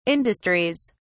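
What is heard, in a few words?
"industries", British English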